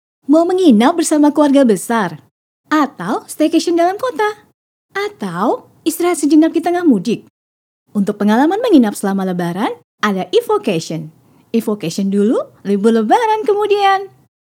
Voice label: casual

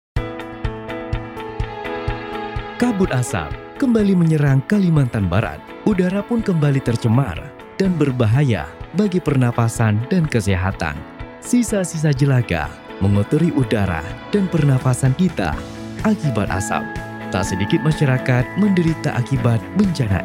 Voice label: INDONESIA FORMAL, voiceover

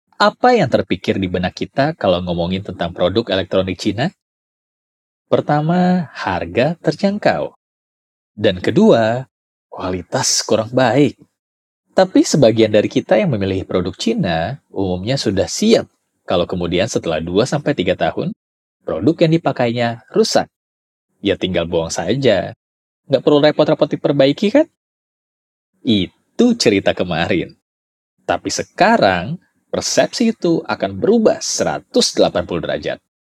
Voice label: CASUAL INDO

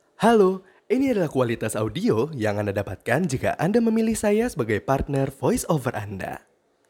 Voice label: Bahasa Indonesia Formal

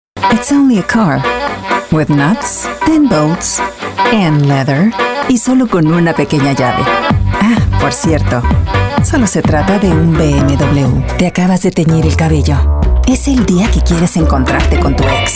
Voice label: Spanish English casual

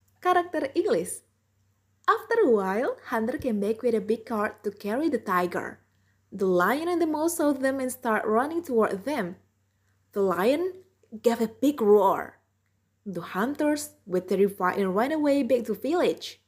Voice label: Karakter English

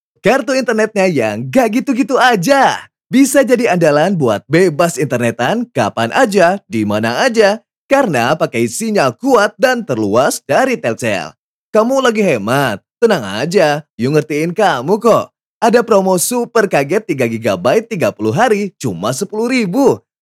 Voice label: CASUAL; CASUAL INDONESIA

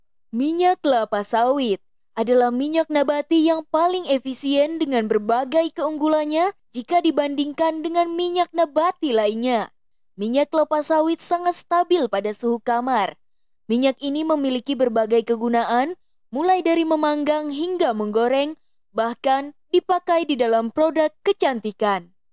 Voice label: FORMAL INDONESIA

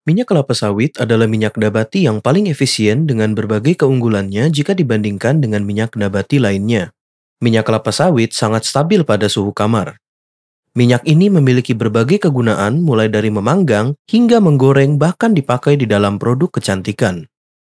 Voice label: Formal Indonesia

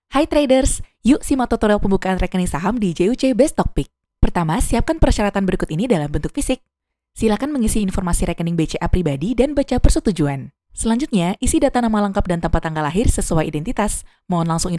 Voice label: INDONESIA CASUAL

